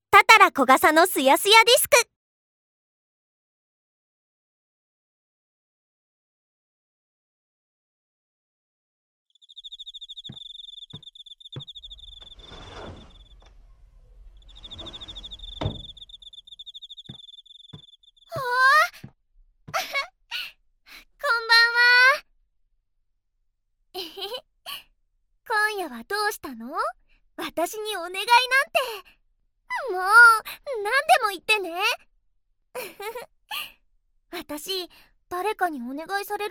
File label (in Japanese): Voice, ASMR; からかさ少女のすやすやご用心?